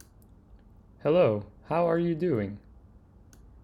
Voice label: english hello